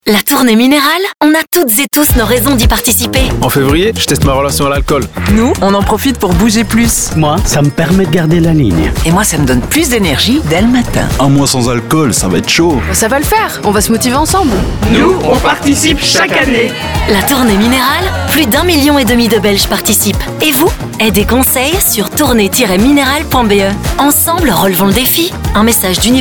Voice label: RADIO; TOURNEE MINERALE